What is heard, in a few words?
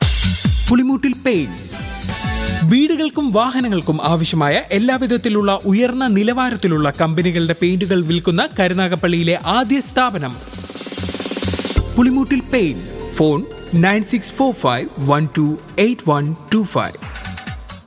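promo, Malayalam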